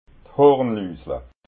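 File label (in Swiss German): "hornussla", Alsatian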